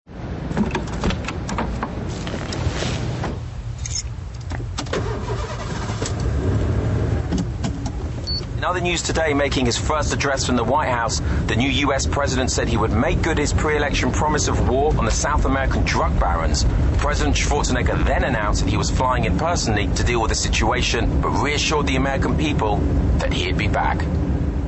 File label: voiceovers